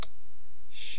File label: "shu", East Cree syllabics